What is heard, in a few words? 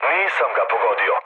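Serbian bomb failure mood low, speech; War Thunder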